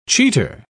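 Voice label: "cheater", British English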